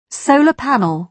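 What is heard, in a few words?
"solar panel", British English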